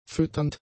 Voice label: "fütternd", German; adjectives

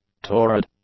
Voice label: "torrid", English; adjectives

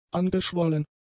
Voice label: "angeschwollen", German; adjectives

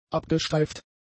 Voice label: "abgestreift", German; adjectives

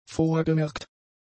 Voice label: "vorgemerkt", German; adjectives

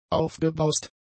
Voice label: "aufgebraust", German; adjectives

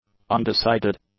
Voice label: "undecided", English; adjectives

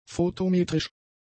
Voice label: "fotometrisch", German; adjectives

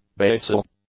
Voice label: "basal", English; adjectives

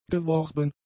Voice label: "geworben", German; adjectives

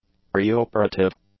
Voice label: "preoperative", english; adjectives

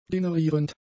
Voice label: "generierend", German; adjectives

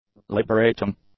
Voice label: "liberating", english; adjectives

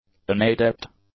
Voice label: "unadept", English; adjectives

